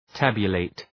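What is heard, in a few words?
"tabulate", English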